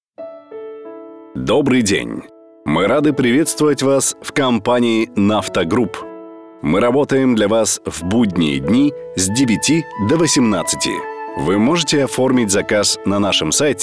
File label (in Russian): Голосовое приветствие IVR; Компания Нафта Групп; АВТООТВЕТЧИКИ